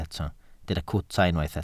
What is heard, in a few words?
"cwta", Welsh